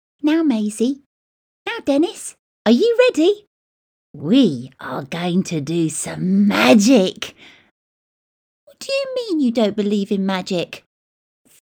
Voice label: GIRL CARTOON, Voice Over